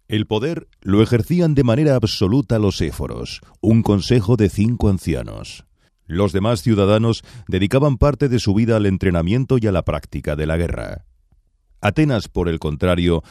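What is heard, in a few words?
spanish male narration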